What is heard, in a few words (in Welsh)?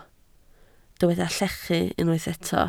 "llechu", Welsh